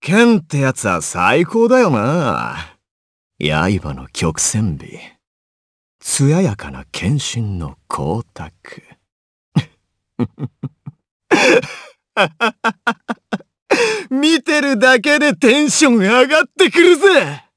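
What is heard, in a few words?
voice dia, voices heroes, Japanese; Kibera; kingsraid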